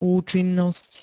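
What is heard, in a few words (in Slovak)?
"účinnosť", Slovak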